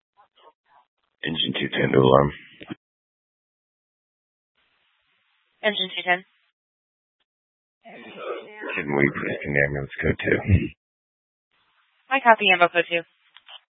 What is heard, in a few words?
Fire Departments Mesa Simulcast; C3 Mesa; TOPAZ